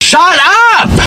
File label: shut up loud